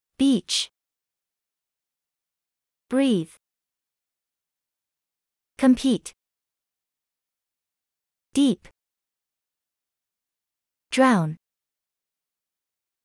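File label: American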